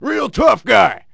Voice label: toughguy; planetquake; planetcnc; ra